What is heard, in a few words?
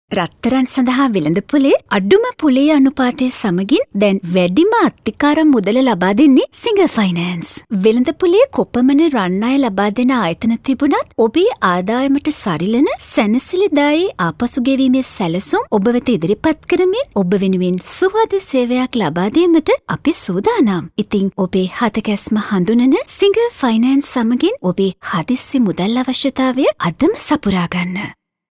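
Sinhala female voice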